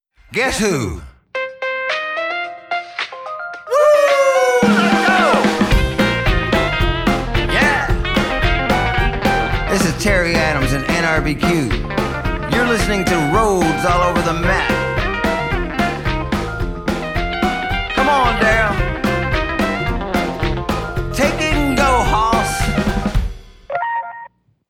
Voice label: Radio Spot